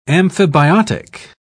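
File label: "amphibiotic", English, British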